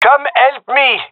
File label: gendermerie male; request help; Counter Strike Model Pack; CS:GO